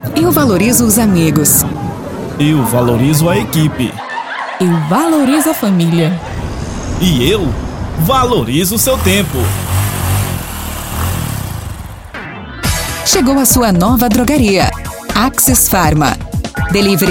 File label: spot; axes farma